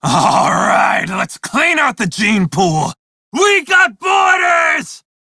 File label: Crew Enemy Spot, Male; Dialog; Warframe; Railjack Crew; Red Veil